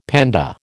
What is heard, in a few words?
"panda", American